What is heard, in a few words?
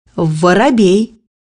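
"sparrow", Russian; bukvar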